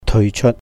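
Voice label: "teui chēut", withdraw, Cantonese; 退出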